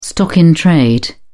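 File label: "stock-in-trade", English, British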